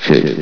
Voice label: "hit", vox; valve